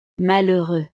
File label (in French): "malheureux", frances; estados animo